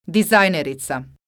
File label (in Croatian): "dizajnerica", croatian